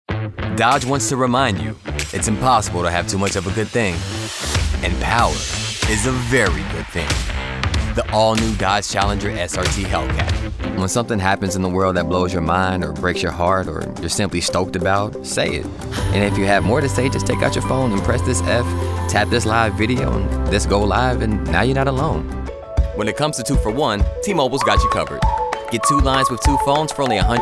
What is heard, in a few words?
Commercial